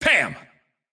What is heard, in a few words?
intense